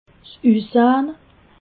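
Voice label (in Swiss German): "ussahne", Alsatian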